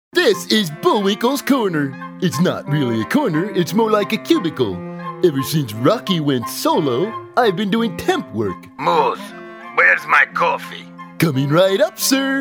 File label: Animation